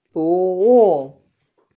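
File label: "fuwu", Mandarin Chinese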